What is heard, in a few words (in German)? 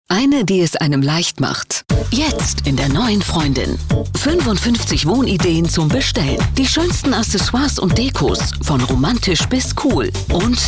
Dynamisch